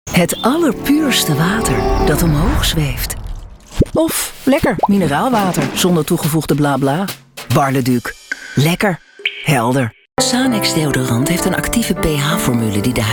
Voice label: Dutch Commercial